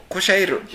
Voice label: "koshaeru", minamiyamagata